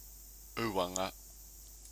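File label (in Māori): "uanga", Māori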